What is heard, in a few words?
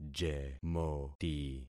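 "jemoti", concatenated syllables; Affixing experiment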